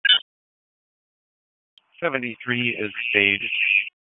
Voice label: PS TAC, p25; wake

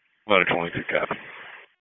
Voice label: FIRE OPS, p25; wake

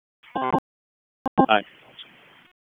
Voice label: FIRE OPS, p25; wake

